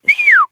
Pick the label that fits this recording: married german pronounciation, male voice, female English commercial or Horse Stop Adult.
Horse Stop Adult